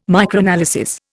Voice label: "Microanalysis", Indian